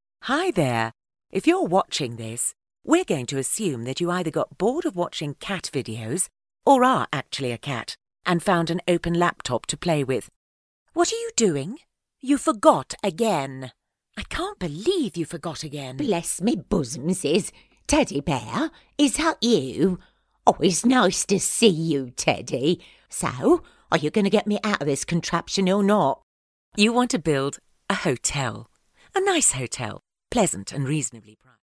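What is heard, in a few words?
English British, female, voice over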